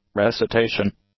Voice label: "recitation", English; nouns